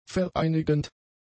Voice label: "vereinigend", German; adjectives